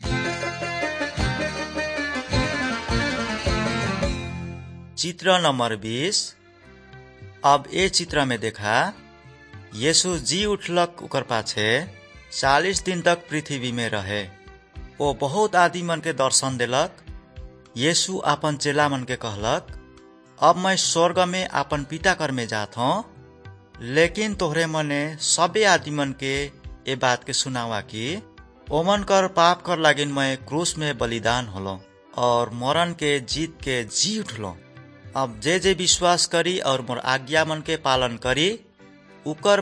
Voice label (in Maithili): good news maithili, nt drama